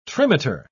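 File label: "trimeter", British English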